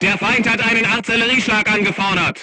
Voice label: voice message art shooting warning, crew tanks german